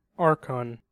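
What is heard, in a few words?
"archon", US English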